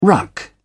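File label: "ruck", English, British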